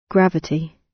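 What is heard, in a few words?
"gravity" British; Space, the planets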